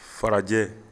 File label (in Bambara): farajɛ, Bambara